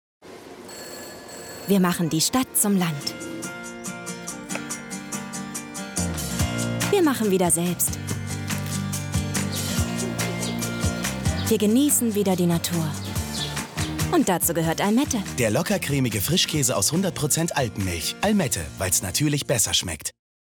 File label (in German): TVC Frischkäse